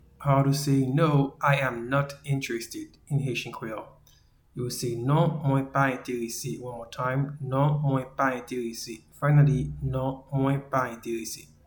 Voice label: No I am not interested in Haitian Creole Non mwen pa enterese